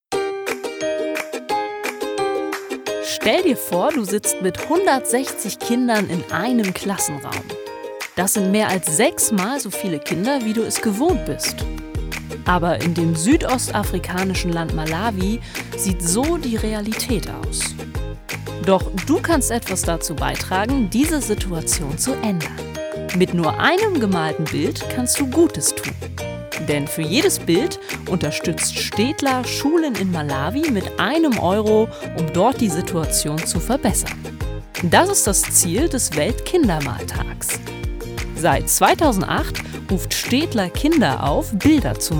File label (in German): Erklärfilm